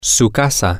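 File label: your house, Spanish, male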